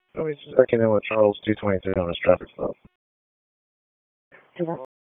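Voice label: Police Northeast District Dispatch; Raleigh; wake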